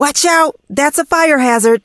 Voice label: hurt